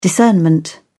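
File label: "discernment", British English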